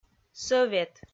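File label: "сӧвет", Komi, female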